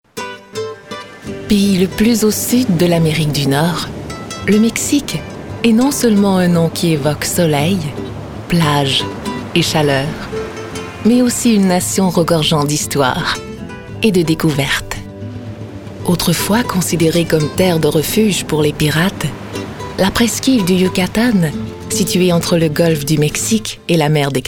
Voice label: french canada narration

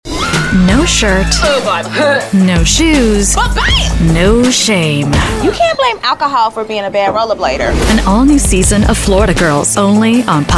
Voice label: women promos

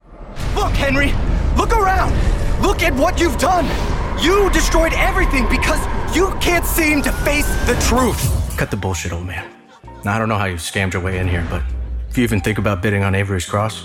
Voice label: men video games

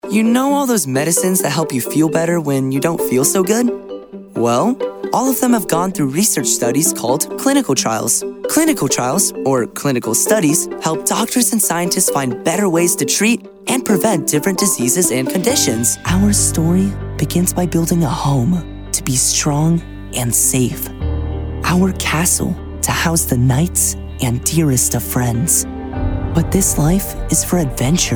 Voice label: kids narration

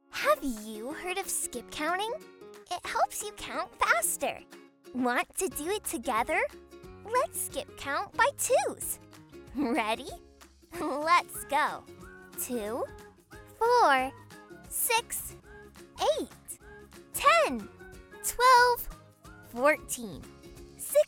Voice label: kids elearning

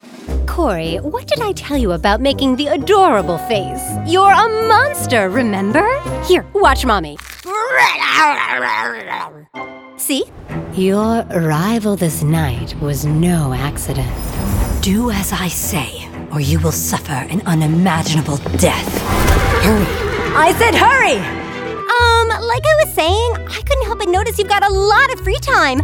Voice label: women animation

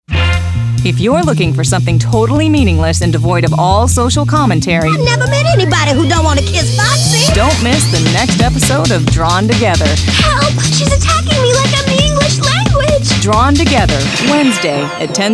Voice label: women promos

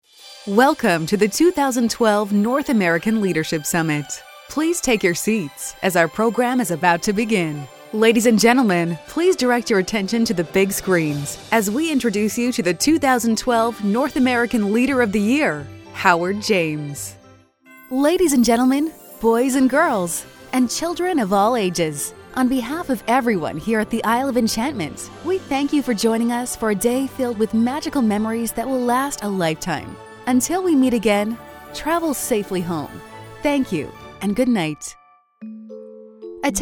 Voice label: women other industrial

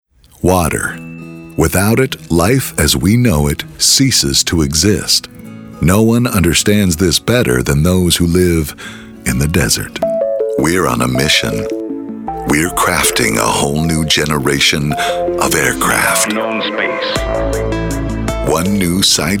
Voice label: men narration

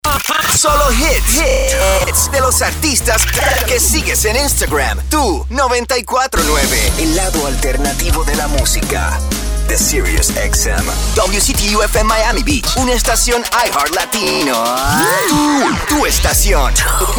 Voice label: spanish radio imaging

